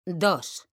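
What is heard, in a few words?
"dos", Spanish, female; mekanta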